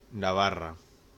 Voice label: Pronunciation of Navarre in Spanish

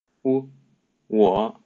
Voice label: "我", Mandarin Chinese

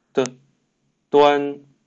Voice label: "端", Mandarin Chinese

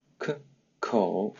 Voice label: "口", Mandarin Chinese; pronunciation; k

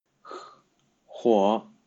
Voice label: "火", Mandarin Chinese; pronunciation

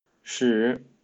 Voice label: "矢", Mandarin Chinese

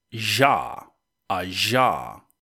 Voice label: Voiced palato-alveolar sibilant

